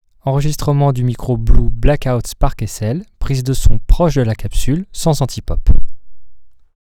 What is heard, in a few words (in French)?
Voix Reglage neutre Proche et sans anti pop; SparkSL